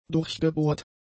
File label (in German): "durchgebohrt", German; adjectives